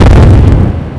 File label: angle angry; Stake Fortune Fighters; XBOX